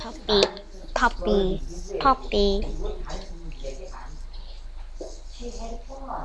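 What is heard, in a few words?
"puppy", spoken word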